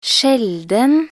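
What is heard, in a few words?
"sjelden", Norwegian Bokmål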